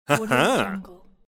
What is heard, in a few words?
male saying "aha"; medusamusiclab